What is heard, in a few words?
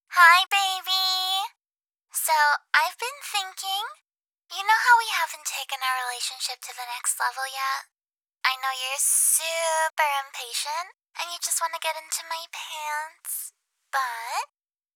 voicemail; voicemail #18 — i know you're impatient, but...